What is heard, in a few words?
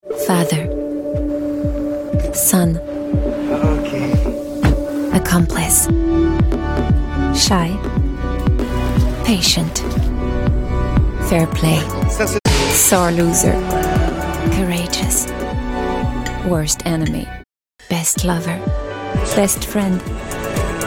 PUB ENG inspirational; Renault